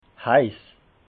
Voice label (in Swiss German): "heiss", alsace